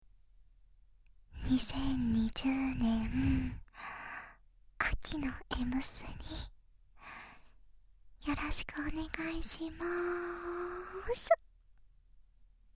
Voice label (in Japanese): イベント当選告知ボイス